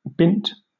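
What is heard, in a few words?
"bint", British English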